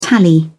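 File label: "tally", British English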